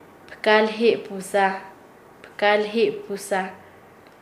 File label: "pecador", Nasa Yuwe; paez